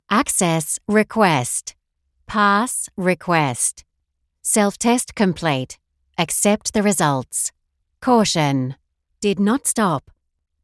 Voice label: australian voice system announcements

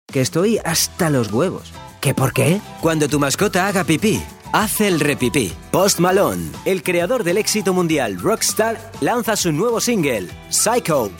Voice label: spanish male commercial

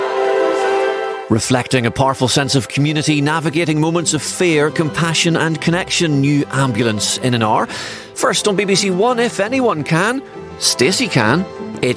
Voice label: continuity; bbc1234

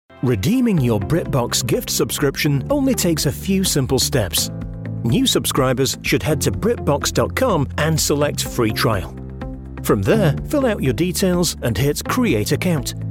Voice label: corporate

